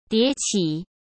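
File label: "迭起", Mandarin Chinese; chinese-flashcards; hsk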